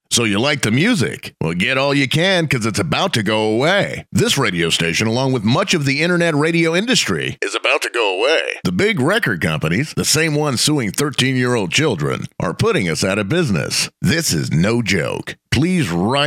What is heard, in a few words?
DRY PSA SWEEPER; savenetradio